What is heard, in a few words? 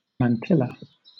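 "mantilla", British English; pronunciations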